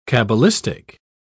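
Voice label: "cabalistic", British English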